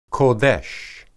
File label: "qodesh", Hebrew